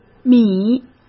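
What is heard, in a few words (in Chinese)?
"米", Mandarin Chinese; hsk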